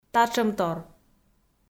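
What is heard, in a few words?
"татшӧмтор", Komi, female; audio dict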